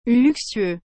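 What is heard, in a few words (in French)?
"luxueux", French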